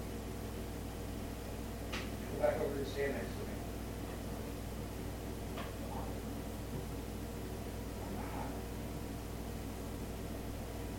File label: male voice